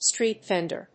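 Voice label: "street vendor", English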